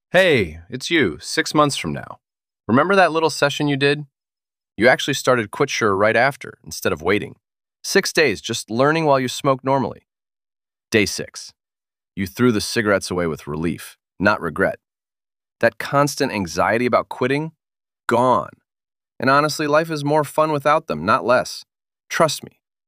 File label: male; voicemails